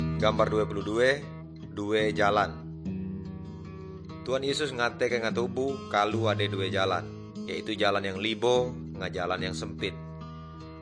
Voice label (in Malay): good news melayu tanjung raja